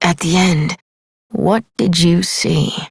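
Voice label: Adult, English; New War; Epilogue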